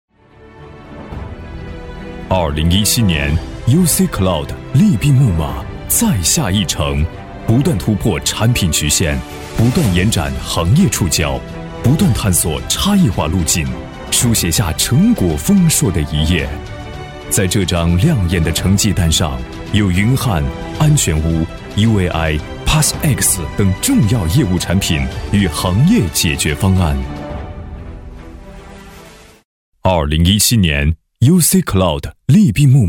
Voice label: 男, 大气, 浑厚, 企业宣传片

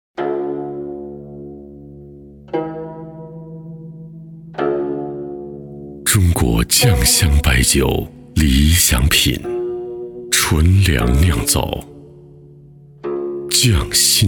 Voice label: 广告 大气讲述; 肆拾玖坊

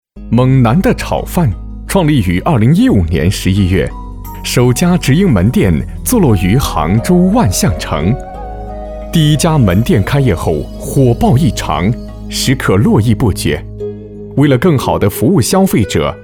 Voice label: 专题,猛男的炒饭

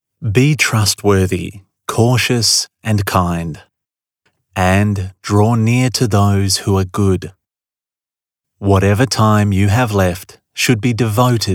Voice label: 外籍男 英文版 试音; 弟子规英文版

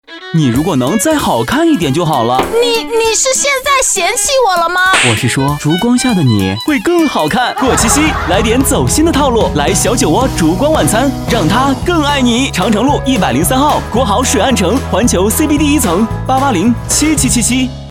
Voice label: 男 广告 搞怪时尚

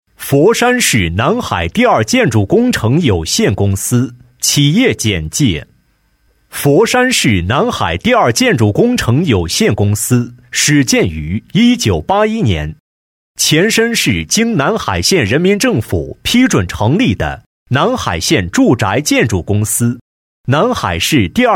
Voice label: 男, 企业宣传; 佛山市南海第二建筑公司宣传

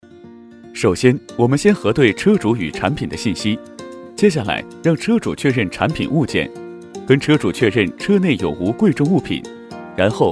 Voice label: 男 广告 4S店维修服务

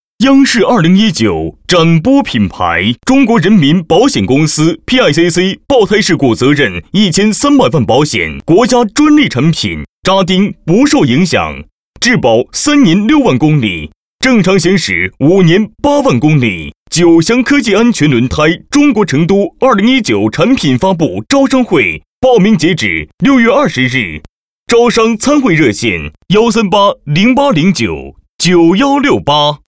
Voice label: 广告, putonghua nan; 久祥科技

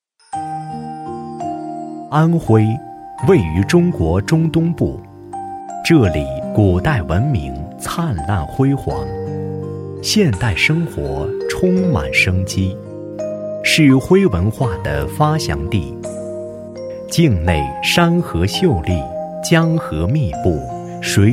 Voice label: 男, 宣传片, 城市, 磁性知性, 亲切舒缓; 安徽

